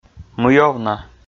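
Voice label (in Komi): "мый овна", Komi, male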